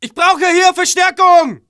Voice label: ger backup, german